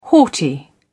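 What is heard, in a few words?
"haughty", English, uk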